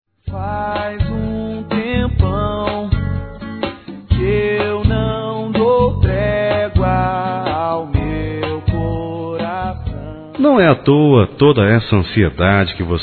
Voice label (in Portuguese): Boa Viagem Masculino, Telemensagens; Telemensagens 2017